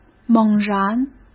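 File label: "猛然", Mandarin Chinese; chinese flashcards; hsk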